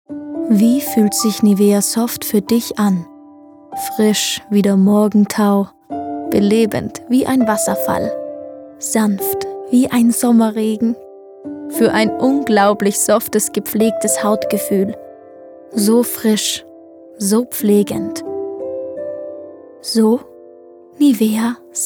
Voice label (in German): Werbung; NIVEA